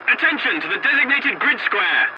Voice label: voice message attention to point, english; War Thunder